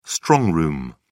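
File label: "strong room", British English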